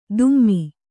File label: "ದುಮ್ಮಿ", Kannada